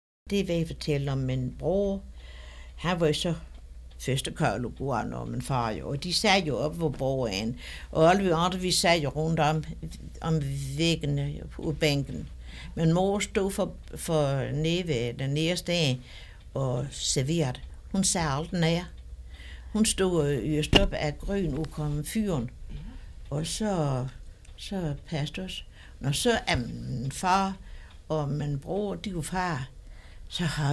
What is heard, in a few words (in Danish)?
Dialekt fra Marstal Landsogn SyFynske